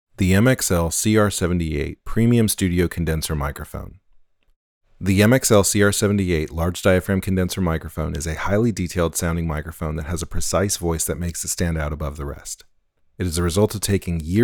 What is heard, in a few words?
MXL CR78 Test VO